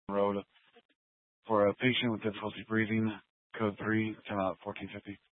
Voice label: Fire Department Dispatch, scanner